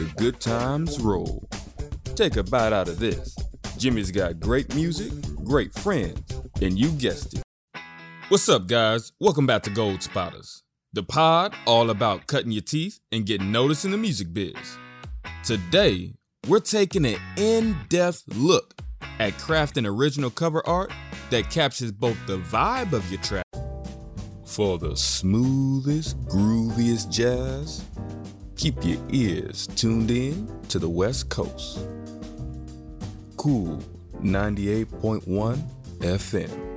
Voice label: Radio News Podcast